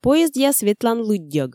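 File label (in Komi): "поездъяс ветлан лыддьӧг", Komi, female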